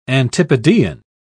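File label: "antipodean", British English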